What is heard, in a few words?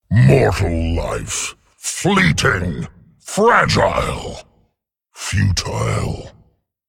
Male Dragon Play